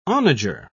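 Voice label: "onager", English, British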